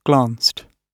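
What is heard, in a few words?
"glanced", British English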